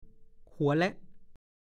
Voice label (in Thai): "หัวแหล้ะ", ภาษาโคราช; เป็นคำพูดดูแคลนในทำนองว่าไม่มีอะไร ไม่มีฐานะ ไม่มีสมบัติพัสถาน ไม่มีน้ำยา